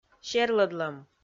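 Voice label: "серлӧдлӧм", Komi, female; kpv audio dict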